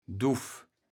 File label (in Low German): "Duff", kaevels platt